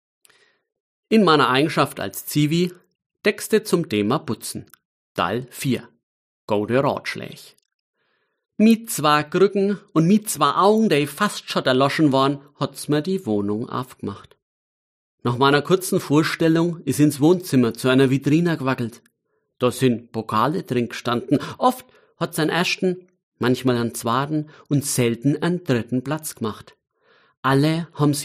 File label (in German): Mundart